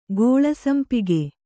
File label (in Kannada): "ಗೋಳಸಂಪಿಗೆ", Kannada; gōḷa sampige; noun